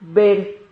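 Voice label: "ber", Spanish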